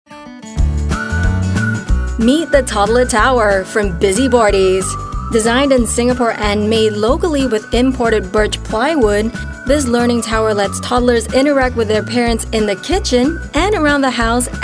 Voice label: Asian English; BusyBoardies ToddlerTower